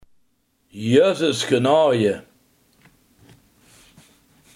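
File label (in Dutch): hemeltje lief, Limburgse gesproken woordenboeken; hemeltje-lief!, jözes-genaoje!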